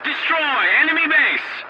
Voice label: voice message attack enemy base, english; War Thunder

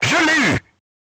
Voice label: voice message target destroyed, crew tanks french

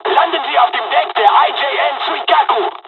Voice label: landing, dialogs german; War Thunder

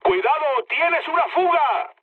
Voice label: tank damaged, mood low, speech; War Thunder

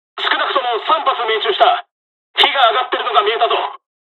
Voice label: dialog, dialogs japanese; us midway; War Thunder

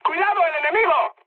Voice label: enemy detected, mood high, speech; War Thunder

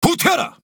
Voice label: Speech Quick Commands Back Ship, KO; World Of Warships

